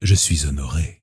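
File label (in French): "je suis honoré", French, elf, male; PhoenixCraft-1.16.5; PhoenixNPCPack; minecraft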